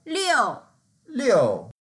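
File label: "liu4", Mandarin Chinese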